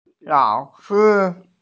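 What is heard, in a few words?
"teacher", Mandarin; lao3shi1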